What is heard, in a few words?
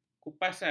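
"kupasan", Malay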